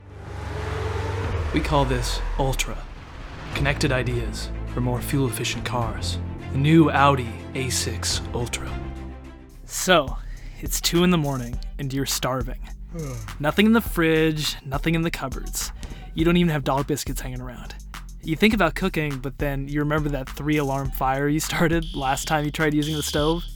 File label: VO Reel